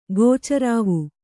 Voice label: "ಗೋಚರಾವು", Kannada